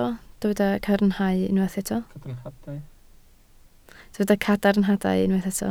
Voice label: "cadarnhadau", Welsh